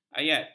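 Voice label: "ayat", Malay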